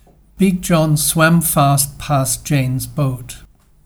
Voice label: Rhythms fast; bigjohnswam